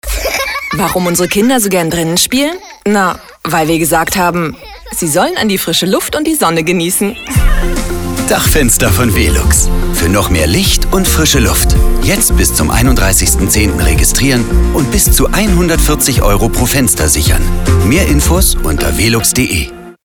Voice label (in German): Funkspot; VELUX